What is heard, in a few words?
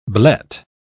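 "blet", British English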